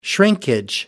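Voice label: "shrinkage", British English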